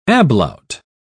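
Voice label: "ablaut", British English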